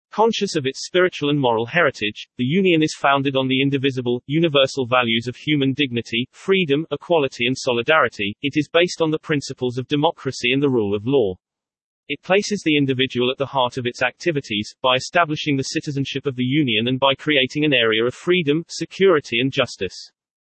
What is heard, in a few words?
English (UK); Jack; en-GB-Standard-B